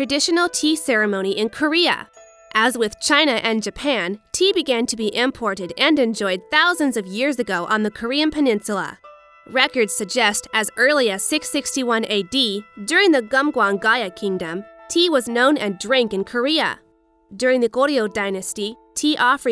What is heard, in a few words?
educational sampler